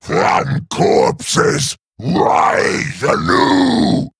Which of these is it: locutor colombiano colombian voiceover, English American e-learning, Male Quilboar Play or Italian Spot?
Male Quilboar Play